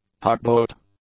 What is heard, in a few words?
"tugboat", English; nouns